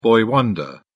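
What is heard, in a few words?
"boy wonder", English, British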